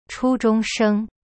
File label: "初中生", Chinese; chu zhong sheng; cyberchinese